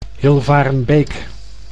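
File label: "Hilvarenbeek", Dutch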